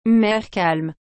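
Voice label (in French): "mer calme", French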